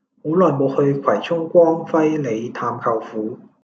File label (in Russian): Голоса, Гонконгский